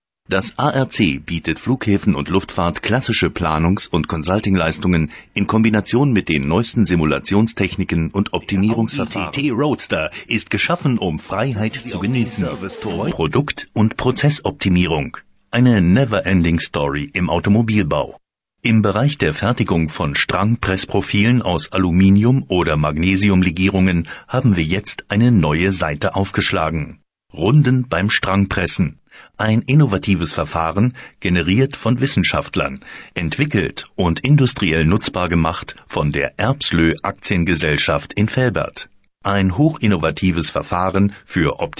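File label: german male voice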